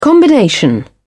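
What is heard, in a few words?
"combination", British English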